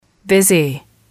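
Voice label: "busy", english, female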